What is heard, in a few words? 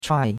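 "chuai4", Chinese; Cours-de-Chinois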